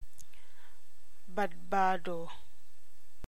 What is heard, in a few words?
Ilocano